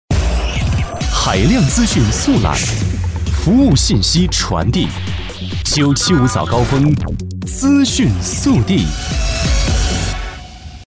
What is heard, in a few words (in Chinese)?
男 广告 电台